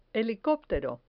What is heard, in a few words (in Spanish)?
"helicoptero", Spanish